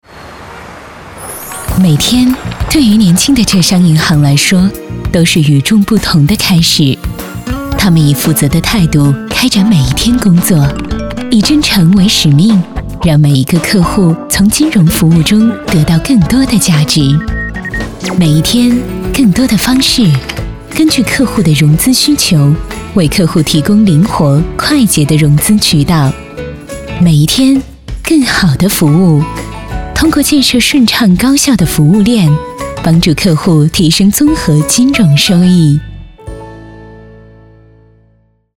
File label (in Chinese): 女76 专题; 浙商银行